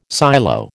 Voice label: "silo", American

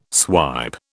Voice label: "swipe", British

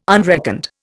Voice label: "unreckoned", Indian

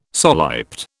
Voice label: "soliped", British

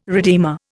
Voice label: "redeemer", Indian

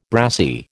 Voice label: "brassie", American

